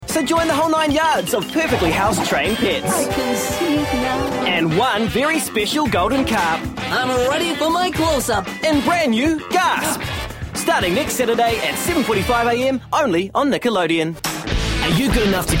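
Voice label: New Zealand English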